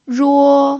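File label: "ruo" Mandarin Chinese, first tone, pinyin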